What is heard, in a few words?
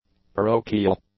"parochial", English; adjectives